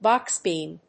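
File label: "BOX BEAM", English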